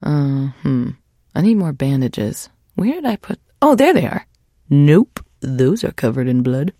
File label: trader monolog; 七日杀; 商人杰恩